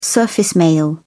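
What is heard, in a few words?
"surface mail", British English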